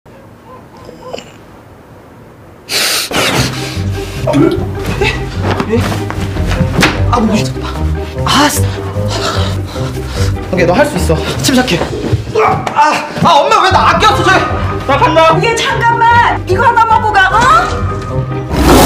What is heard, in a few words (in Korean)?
맥주 강하고 코믹한 20대; 피츠